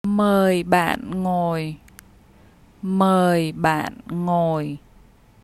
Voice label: Please take a seat, vietnamese; Concern for needs